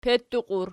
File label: "petuqi", Archi